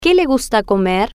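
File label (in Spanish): Que Le Gusta Comer, spanish, female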